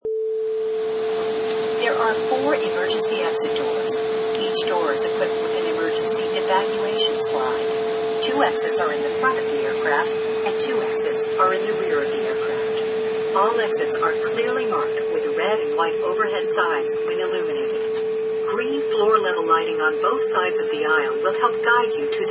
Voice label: Plane Safety Info